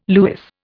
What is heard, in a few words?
"lewis", Indian